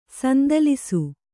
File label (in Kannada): "ಸಂದಲಿಸು", Kannada